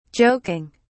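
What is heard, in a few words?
"joking", British English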